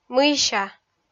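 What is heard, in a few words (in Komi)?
"мыйся", Komi, female; kpv audio dict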